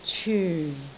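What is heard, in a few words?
"cyun4", Cantonese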